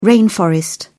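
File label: "rainforest", British English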